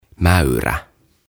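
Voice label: "Mäyrä" Finnish; Badger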